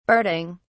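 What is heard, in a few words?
"barding", British English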